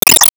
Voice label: Emo Call Name

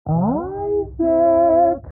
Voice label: Mom Vox Filtered; Isaac

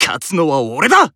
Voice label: Emo Inspire Self

